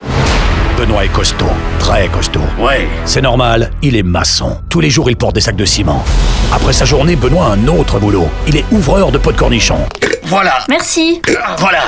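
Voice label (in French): radio advert, French; vw gamme; cornichon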